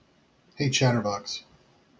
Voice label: hey chatterbox, en-us